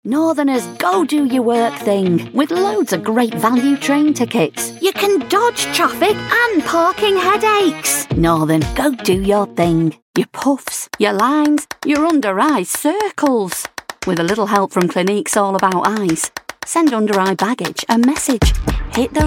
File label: commercial